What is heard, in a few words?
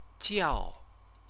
"jiao", Chinese